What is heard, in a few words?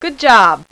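"Good", female; VOICE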